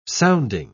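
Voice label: "sounding", British English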